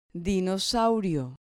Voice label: "dinosaurio", Spanish

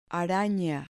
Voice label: "arana", Spanish

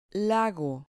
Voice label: "lago", Spanish

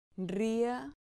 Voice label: "ria", Spanish syllable

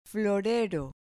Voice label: "florero", Spanish

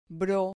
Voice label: "bro", Spanish syllable

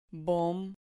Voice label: "bom", Spanish

